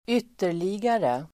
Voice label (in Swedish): "ytterligare", swedish